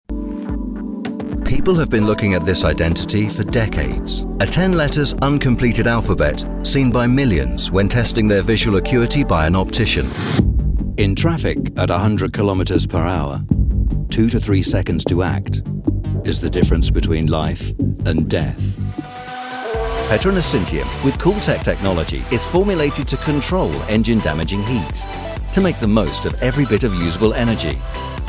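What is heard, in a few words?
Commercial TV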